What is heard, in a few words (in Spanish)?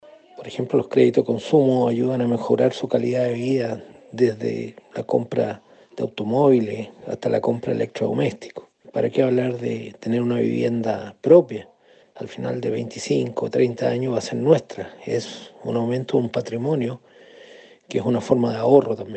cuña